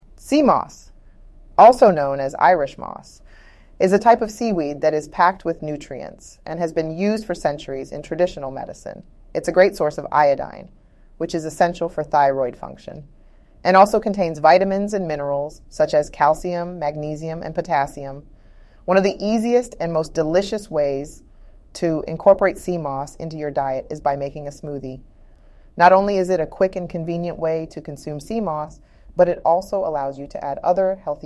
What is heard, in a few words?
synthesized audio